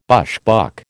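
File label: "bushbuck", American English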